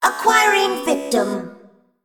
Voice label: Female Mech Play

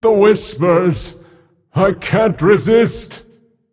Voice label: Male Titan Keeper

